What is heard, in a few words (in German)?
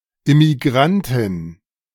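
"Immigrantin", German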